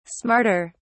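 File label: "smarter", English, British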